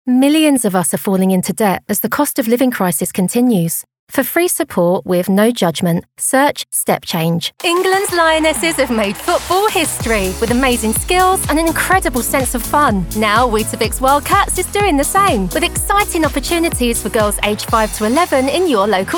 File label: Commercial